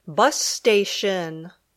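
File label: "bus station", English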